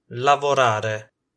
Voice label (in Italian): "lavorare", Italian